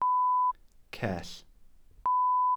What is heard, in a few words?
"cell", glossary